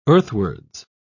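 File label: "earthwards", British English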